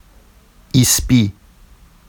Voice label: "isbi", Vafsi; ایسبی; adj white